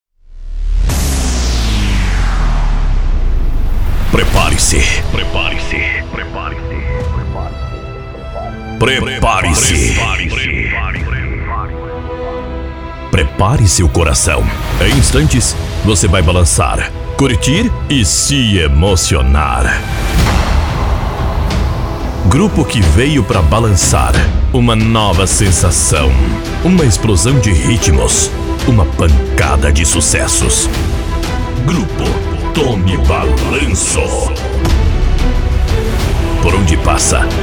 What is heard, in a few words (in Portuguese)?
ABERTURA SHOW - VOZ